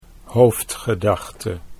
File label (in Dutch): "hoofdgedachte", Dutch